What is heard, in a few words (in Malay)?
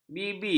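"bibi", Malay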